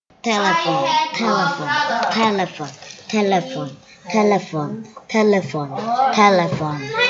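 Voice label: "telephone", spoken word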